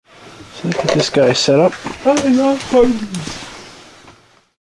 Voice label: strangevoice